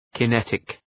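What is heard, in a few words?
"kinetic", English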